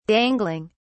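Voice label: "dangling", British English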